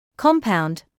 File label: "compound", English